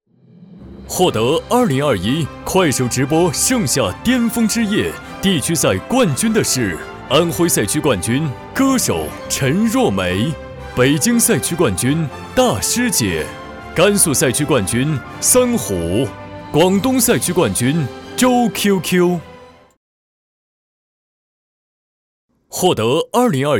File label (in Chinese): 大气激情颁奖汇报; 快手直播盛夏之夜